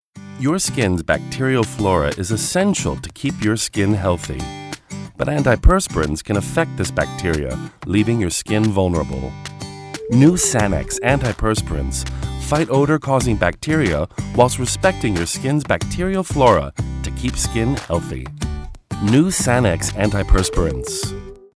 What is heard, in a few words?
male american english skin comercial